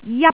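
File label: "jap1", Jyutping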